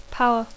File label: "power", English; poder; potència